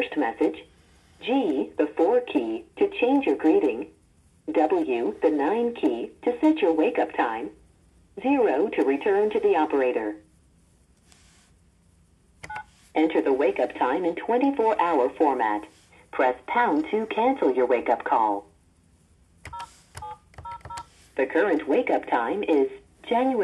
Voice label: Hotel Voicemail System; Edge